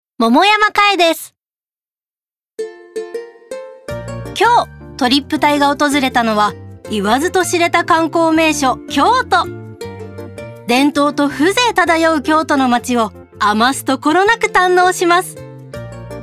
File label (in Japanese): ナチュラル